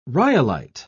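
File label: "rhyolite", British English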